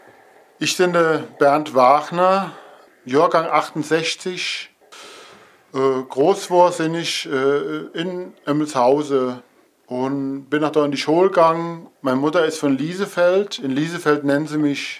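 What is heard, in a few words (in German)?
stellt sich vor, O-Ton Hunsrück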